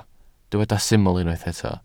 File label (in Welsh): "syml" Welsh